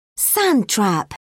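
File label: "sand trap", British English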